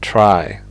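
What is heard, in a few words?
"try", English; fonetica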